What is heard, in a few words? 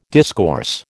"discourse", American